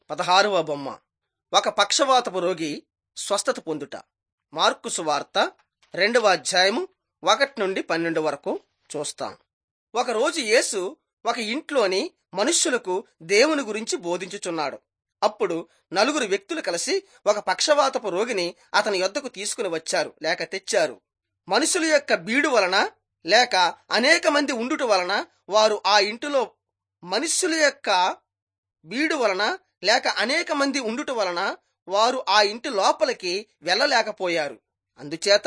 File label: telugu bangladesh; jesus teacher and healer